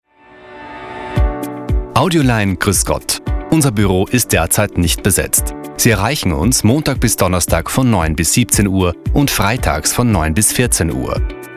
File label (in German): Nacht Hochdeutsch